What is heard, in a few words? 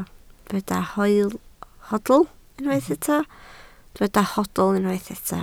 "hoedl", Welsh